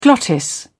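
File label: "glottis", English, British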